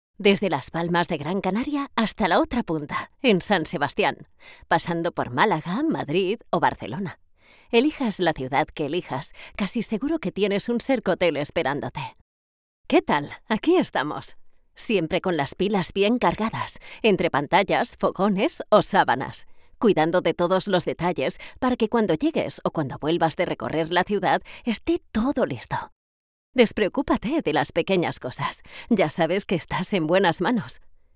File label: spanish corporate videos